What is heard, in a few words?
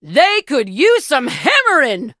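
battle, English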